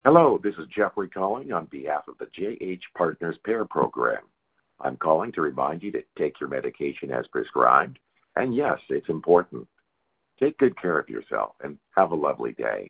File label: Telephone Message rappel de medicaments Anglais; Jeffery Hale